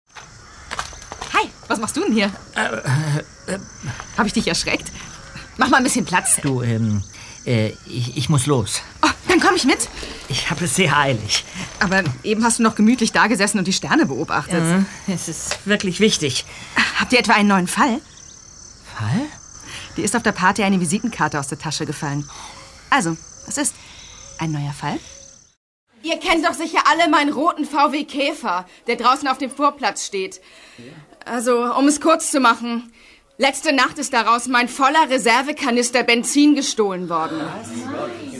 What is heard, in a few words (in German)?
hoerspiel